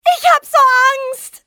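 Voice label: scared, female; XBOX; civ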